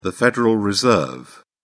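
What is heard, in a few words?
"federal reserve", British English, dictionary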